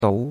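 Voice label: "dou2", Chinese; Cours-de-Chinois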